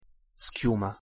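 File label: "schiuma", Italian pronunciation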